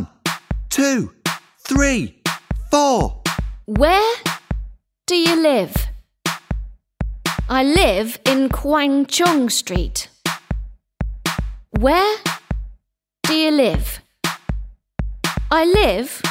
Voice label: english grade 5 lets chant